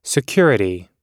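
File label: "security", American English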